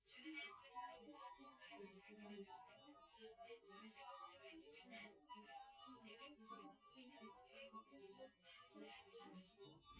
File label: female synth conv 250 ms 5 cm